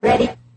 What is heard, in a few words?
"ready", robot